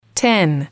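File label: "ten", English, female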